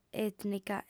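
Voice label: "ètnica", Sardinian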